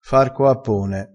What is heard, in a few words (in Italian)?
"farco appone", crespinese